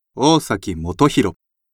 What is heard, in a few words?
free talk